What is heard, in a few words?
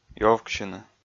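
"ёвксьыны", Komi, male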